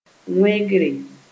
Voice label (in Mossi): "wẽgre", Moore